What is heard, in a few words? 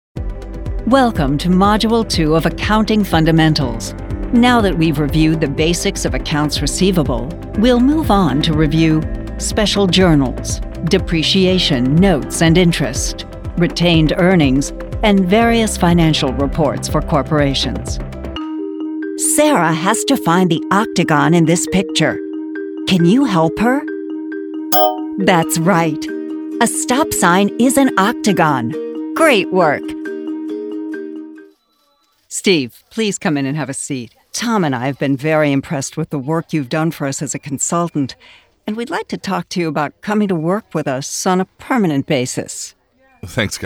E Learn